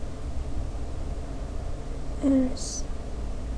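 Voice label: "also", spoken word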